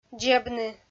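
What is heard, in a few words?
"дзебны", Komi, female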